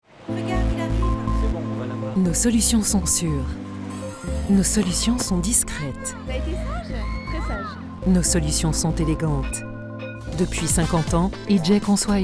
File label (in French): pub vue a la tele